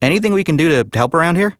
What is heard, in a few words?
Citizen Male, en; Citizens